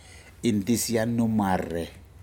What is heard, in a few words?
fish long Spine, Aneityum